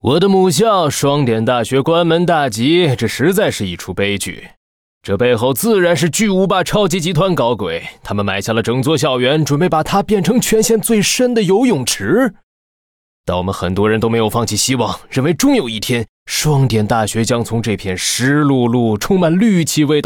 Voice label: Mandarin; 双点校园; 开局播报